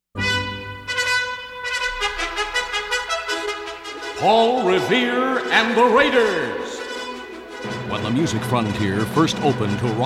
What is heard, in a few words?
Radio Commercials